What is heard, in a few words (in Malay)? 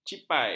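"cipai", Malay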